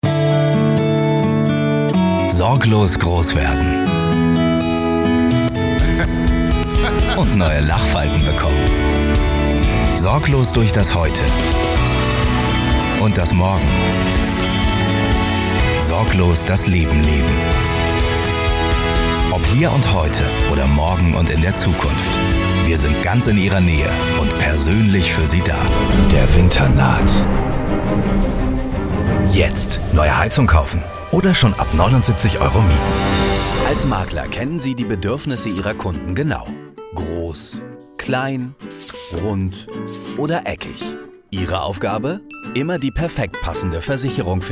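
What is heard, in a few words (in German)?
sprecher collage image werbung erklär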